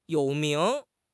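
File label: "you3 ming2", Mandarin Chinese; HSK3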